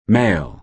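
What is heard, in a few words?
"mail", American English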